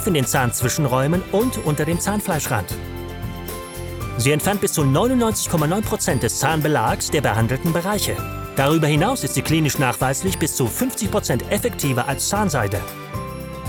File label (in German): dynamischer upbeat explainer munddusche